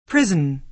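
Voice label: "prison", American English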